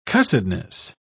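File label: "cussedness", British English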